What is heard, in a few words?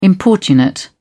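"importunate", British English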